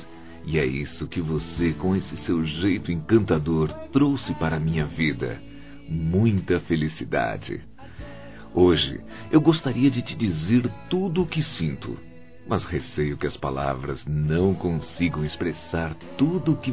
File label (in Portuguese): Aniversário Namoro Masculino